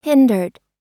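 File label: "hindered", US English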